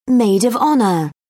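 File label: "maid of honour", British English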